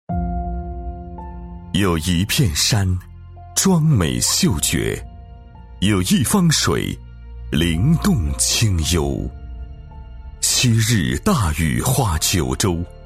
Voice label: 男 产品宣传 矿泉水 磁性质感; 厚重磁性 高端大气

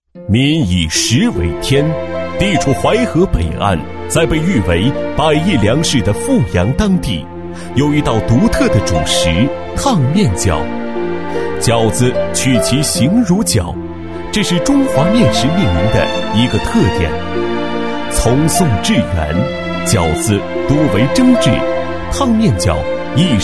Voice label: 男 沉稳介绍; 烫面角; 男D2-年轻气质; 舌尖感

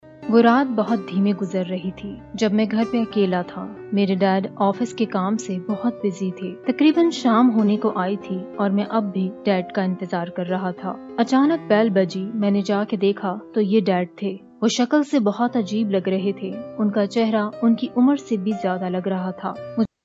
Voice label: 乌尔都女声

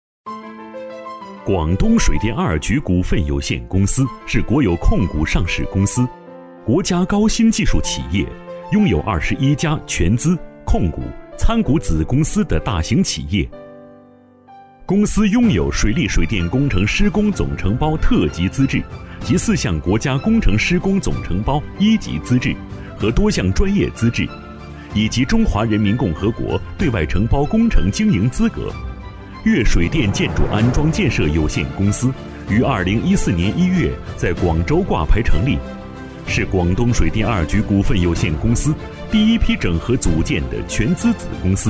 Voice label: 男 企业宣传 年轻稳重; 《广东水电二局股份有限公司》; 男20-厚重有力 大气浑厚

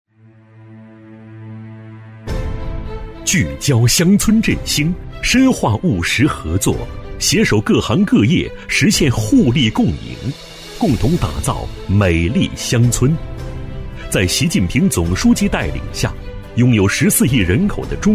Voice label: 男 政府专题; 厚重有力; 大气浑厚

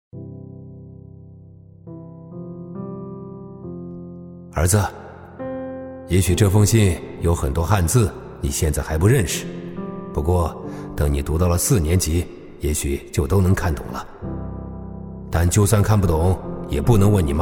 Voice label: 男 角色 青年警察; 中英双语; 大气浑厚; 父亲的信

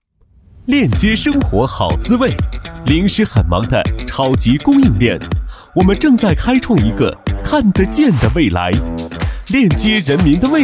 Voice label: 男 品质广告 轻快, 年轻气质 轻松活泼; 零食很忙

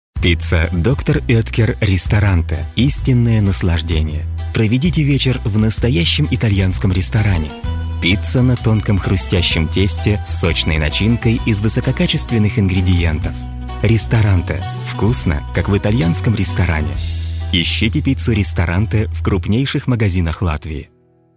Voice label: 俄语男声 广告

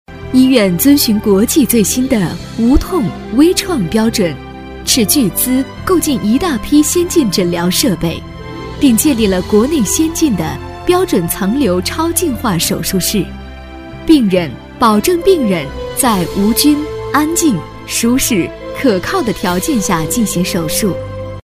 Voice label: 女 专题配音 稳重大气; 医疗医药; 妇幼保健院; 沉稳大气

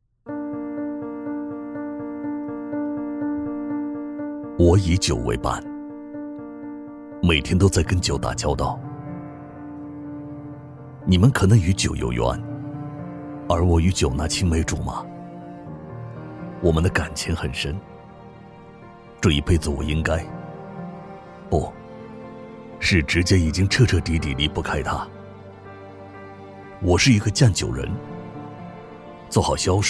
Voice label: 男 独白 磁性浑厚; 酱酒人; 硬朗力度 成熟厚重

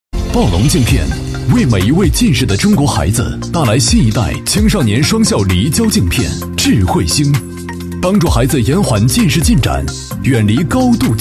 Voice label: 男 镜片 产品介绍; 厚重有力; 年轻时尚; 暴龙镜片

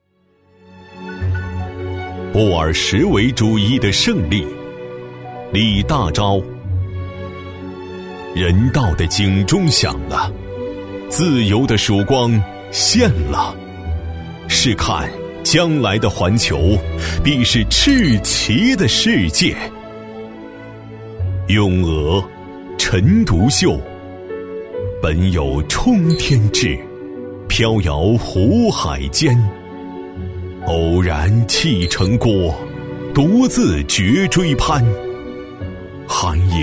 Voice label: 男 朗诵 沉稳有力, 年轻浑厚 高端大气; 走廊

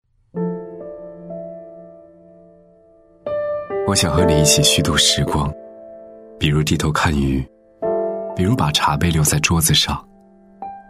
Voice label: 男 走心诗文 温暖走心; 大气主流; 质感磁性; 《我想和你一起虚度时光》

